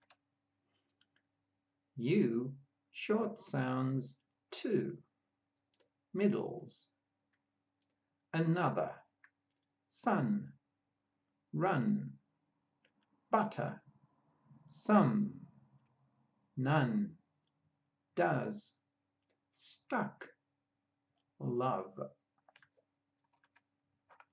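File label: U short sounds, pronunciation of australian english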